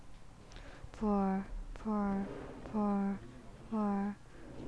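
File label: "pick", spoken word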